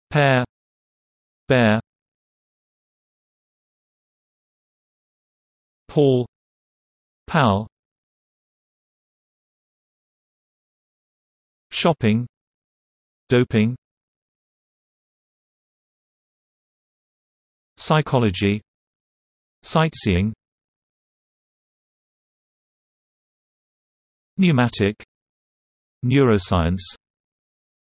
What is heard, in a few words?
p, UK; der buchstabe p